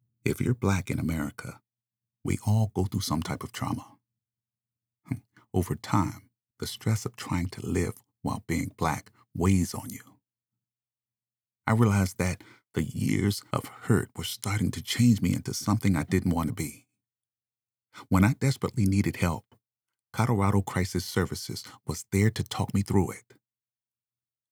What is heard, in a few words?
Testimonial; Black AA Testimonial Digital Audio Video